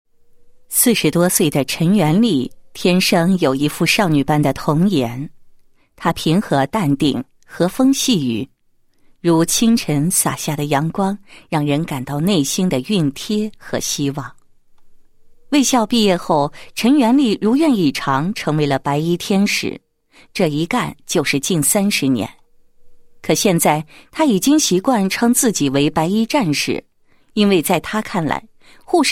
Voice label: 女 人物 优秀护理天使; 盘锦市优秀护理天使陈某

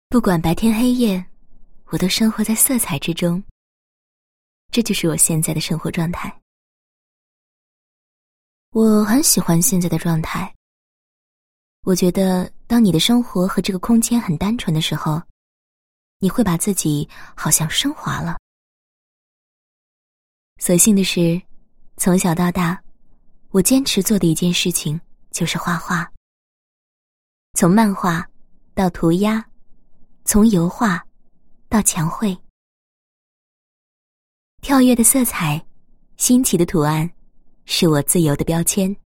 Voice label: 女 自白 员工自述; 目米员工自述 遇见自己

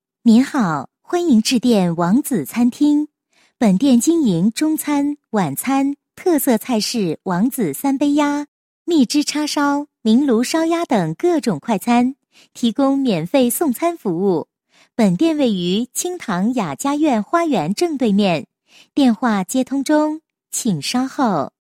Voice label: 女 彩铃, 国语女声配音; 王子餐厅

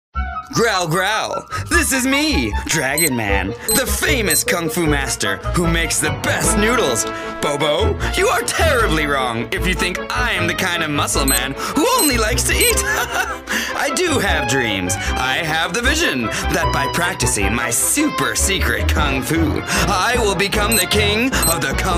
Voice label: 模仿; 功夫熊猫; 外语

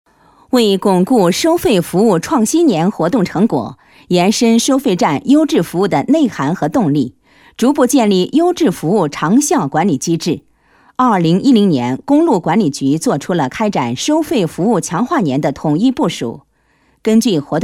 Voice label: 女, 解说, 国语女声; 收费服务

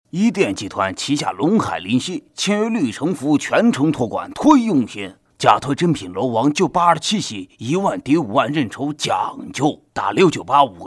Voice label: 男 模仿; 葛优

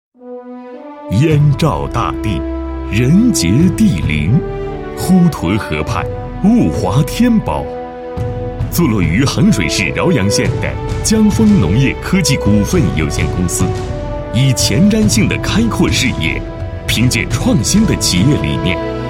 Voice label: 男, 企业; 江丰农业科技股份有限公司

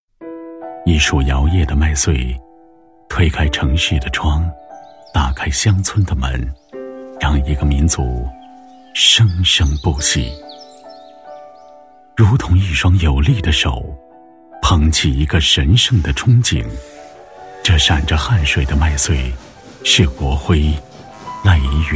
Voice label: 男 专题 抒情旁白风格; 央视大气专题; 一枚税徽

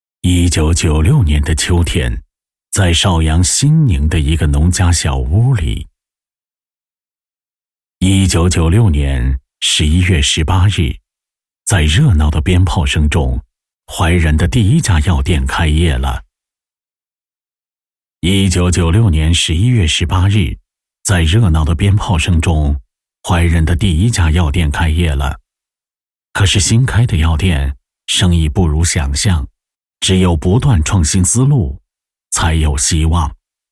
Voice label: 男 旁白 节目旁白; 《音诗话舞》

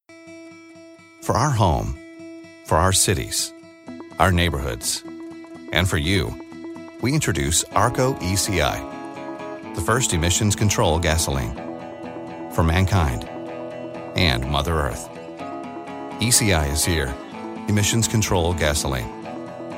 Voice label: 外籍男 商业广告 Commercial; Arco

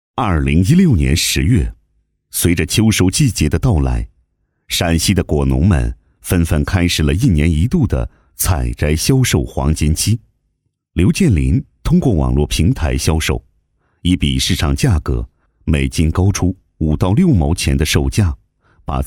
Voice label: 男, 政府建设, 解说; 铜川人设信息化解说配音

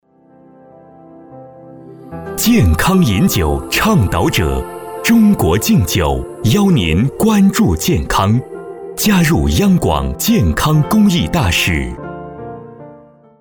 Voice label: 男,片花; 央广; 健康公益大使

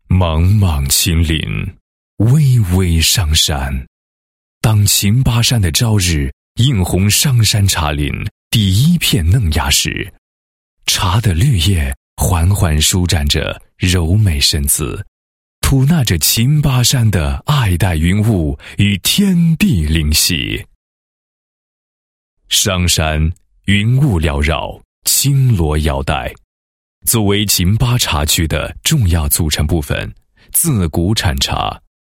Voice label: 男, 茶叶品牌宣传; 御泉茗茶宣传片

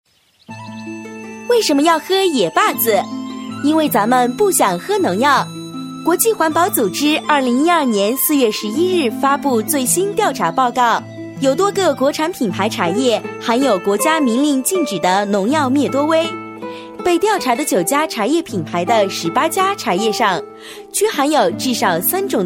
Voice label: 女72 广告 茶叶宣传, 国语女声配音 年轻

